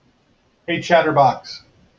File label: hey chatterbox, en us